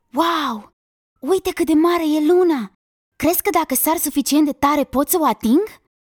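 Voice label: romanian animation child, female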